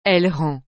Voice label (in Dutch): "elle rend", frans; rendre